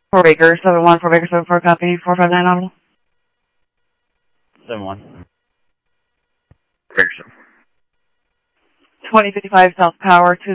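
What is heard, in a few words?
fire radio dispatch, Phoenix Fire; H-Scottsdale; PHXFD K7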